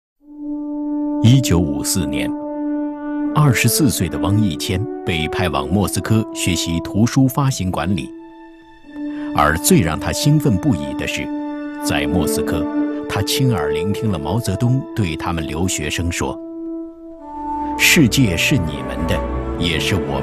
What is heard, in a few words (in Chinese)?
男 纪录片 人物企业厚重人文